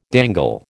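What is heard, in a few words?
"dangle", American English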